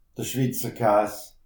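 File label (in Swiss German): "schwitzerkaas", Alsatian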